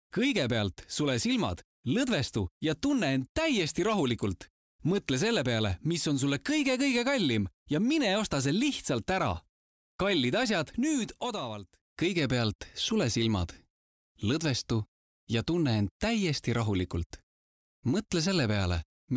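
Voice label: Estonian, male; Professionelle Sprecher und Sprecherinnen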